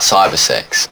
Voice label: LoFi Words, LoFi Vocals